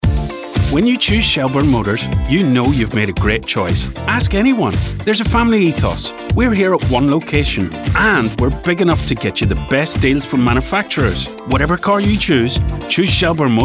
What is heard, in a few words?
Ads Campaign; Shelbourne Motors